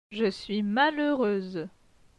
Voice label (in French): "je suis malheureuse", francais